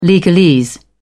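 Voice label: "legalese", British English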